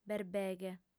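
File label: "berbeghe", female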